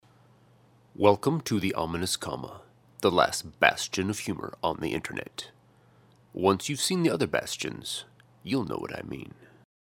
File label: Announcer